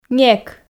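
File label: "нек", Komi, female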